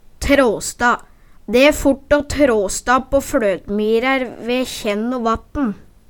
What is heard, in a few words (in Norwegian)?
trå sta, Numedalsmål